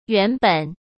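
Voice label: "原本", Mandarin Chinese; hsk; chinese-flashcards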